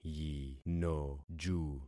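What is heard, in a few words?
"yinoju", concatenated syllables; Affixing experiment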